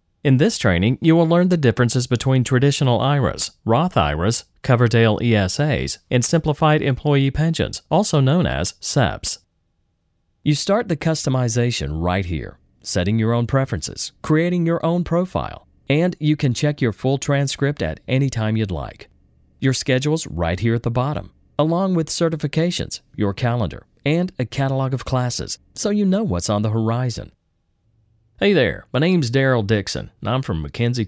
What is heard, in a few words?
E-Learning